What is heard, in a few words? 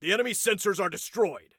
Enemy Sensors, Soldier Male